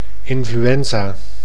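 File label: "influenza", Dutch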